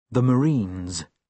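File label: "marines the", British English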